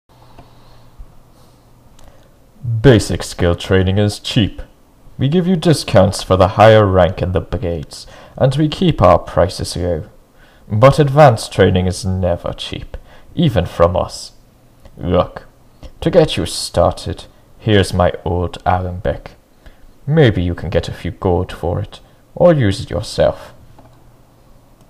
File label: male high elf